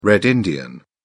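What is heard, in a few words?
"red-indian", British English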